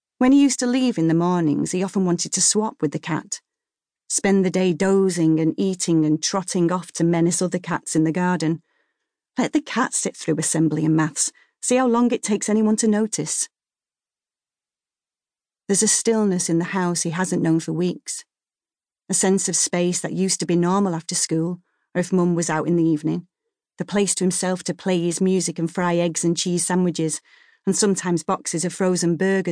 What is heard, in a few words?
Bookread; The Fell by Sarah Moss